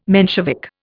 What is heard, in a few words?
"Menshevik", Indian